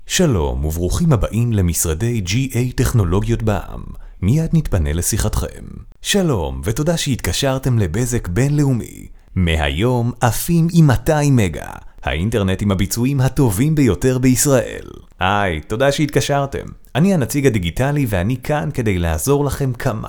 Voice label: IVR Mix